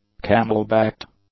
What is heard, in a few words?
"camel-backed", English; adjectives